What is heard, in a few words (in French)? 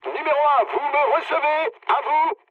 chat, speech fr